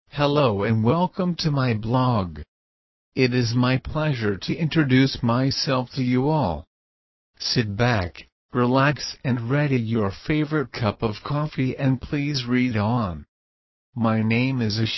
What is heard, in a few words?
English message